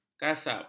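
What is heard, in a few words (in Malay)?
"kasap", Malay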